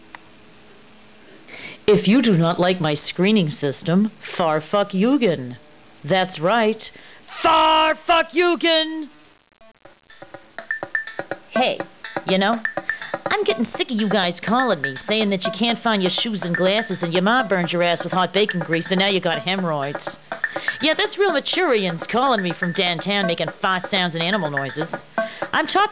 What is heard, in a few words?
voicemail